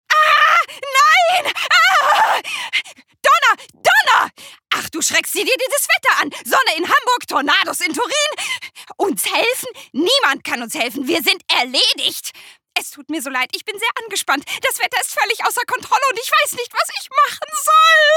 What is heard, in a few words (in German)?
Trickstimme